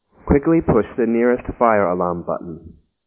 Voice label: english